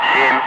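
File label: "5", spoken; Ka-50; Wingman; Digits; DCS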